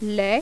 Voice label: french